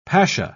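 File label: "pasha", British English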